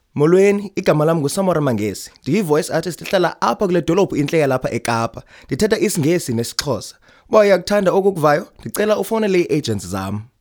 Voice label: intro xhosa